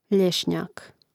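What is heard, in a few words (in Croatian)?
"ljesnjak" Croatian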